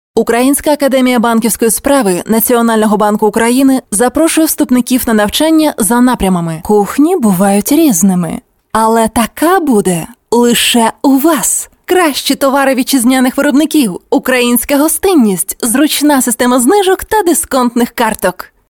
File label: locutora ucraniana ucrainian voiceover